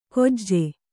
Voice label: "ಖೊಜ್ಜೆ", Kannada

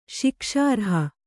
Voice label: "ಶಿಕ್ಷಾರ್ಹ", kannada

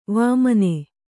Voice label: "ವಾಮನೆ", Kannada

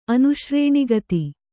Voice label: "ಅನುಶ್ರೇಣಿಗತಿ", Kannada

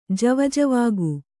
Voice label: "ಜವಜವಾಗು", Kannada

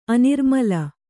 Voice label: "ಅನಿರ್ಮಲ", Kannada

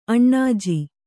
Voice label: "ಅಣ್ಣಾಜಿ", Kannada

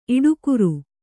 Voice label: "ಈಡುಕಾರ", Kannada

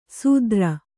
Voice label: "ಸೂದ್ರ", Kannada

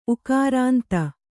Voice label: "ಊಕಾರಾಂತ", Kannada

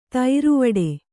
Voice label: "ಥರವಿಡಿ", Kannada

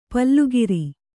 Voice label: "ಪಲ್ಲುಗಿರಿ", kannada; pallugiri

